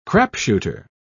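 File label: "crapshooter", English, British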